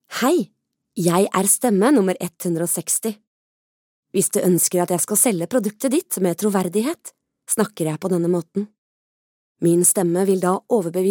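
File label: Norwegian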